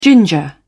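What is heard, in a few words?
"ginger", British English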